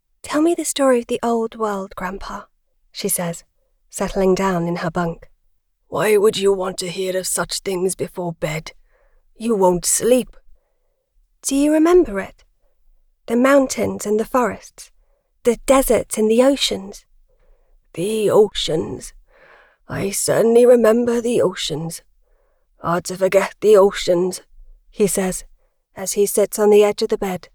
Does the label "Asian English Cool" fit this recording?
No